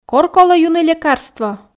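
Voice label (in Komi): кор колӧ юны лекарство, Komi, female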